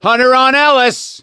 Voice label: hunter pounced, voice; ellis; Left 4 Dead 2; survivor; gambler